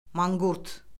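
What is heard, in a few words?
"manqurt", Azerbaijani; Orfoqrafiya Lüğəti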